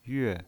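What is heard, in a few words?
"yue" Mandarin Chinese pinyin voice, fourth tone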